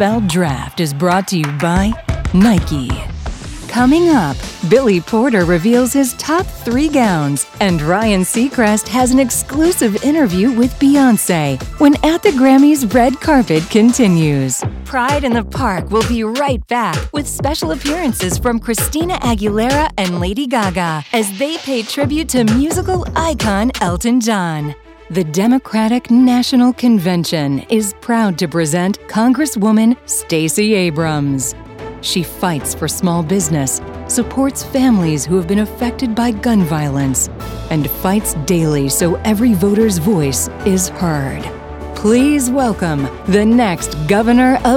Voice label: Live Announce, female voices